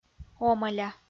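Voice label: "омӧля" Komi-Zyrian, female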